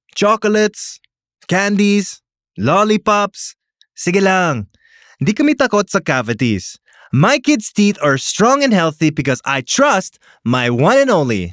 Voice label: Taglish, Confident, Enthusiastic